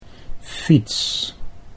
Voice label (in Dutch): "fiets", Dutch